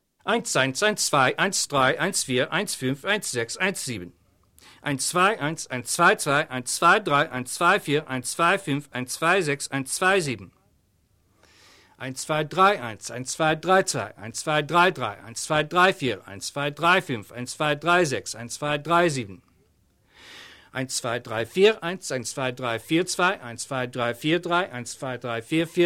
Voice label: Counting Languages German